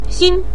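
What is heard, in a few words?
"xin" neutral tone, Mandarin Chinese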